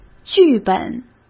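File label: "剧本" Mandarin Chinese; hsk; chinese-flashcards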